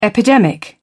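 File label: "epidemic", British English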